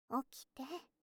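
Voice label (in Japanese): "起きて", Japanese; 目覚ましボイス; JKサキュバス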